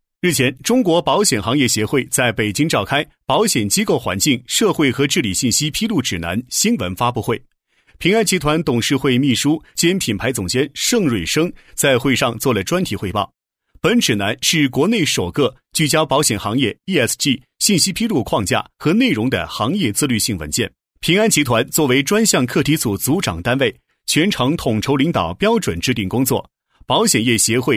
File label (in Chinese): 男 新闻配音 正式沉稳; 新闻播报